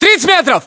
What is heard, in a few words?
threat distance, ru